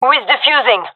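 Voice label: cover defuse, female; vo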